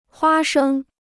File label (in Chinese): "花生", Chinese